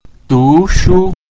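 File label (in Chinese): "du shu", Mandarin Chinese pinyin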